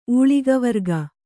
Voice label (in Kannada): "ಊಳಿಗವರ್ಗ", Kannada